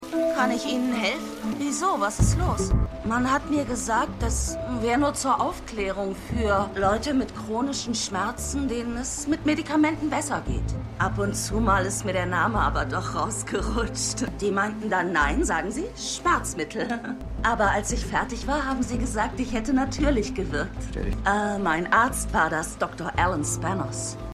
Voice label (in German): Frau 2 in Werbespot; Stimmproben; Dopesick